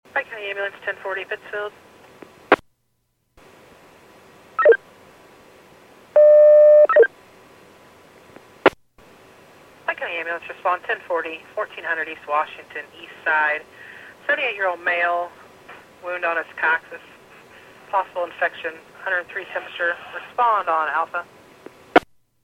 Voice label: PAGING